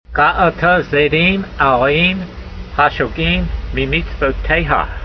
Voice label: voice, hebrew language; Psalm 119:21; ga'a'r'ta zaydeem a'roo'reem, ha'shogeem mee'meets'voteyha